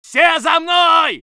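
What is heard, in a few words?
voice soviet infantry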